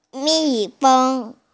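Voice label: "mi feng" (bee), Mandarin Chinese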